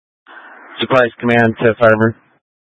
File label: Mutual Aid-Intersystem Interop B, scanner